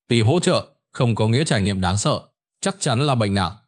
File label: narration; Vi Sao Mot Bai Hat Co The Mac Ket Trong Dau Ban Ca Ngay; StickerMan